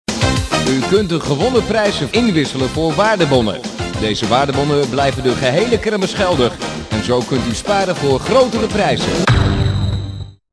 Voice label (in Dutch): Jingle Waardebon inwisselen; kermis; Sound Around